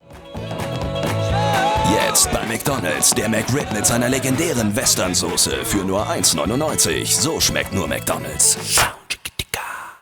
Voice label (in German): Spots OFF, maenner; McDonalds; McRip